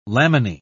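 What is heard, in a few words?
"laminae", British English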